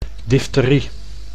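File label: "difterie", Dutch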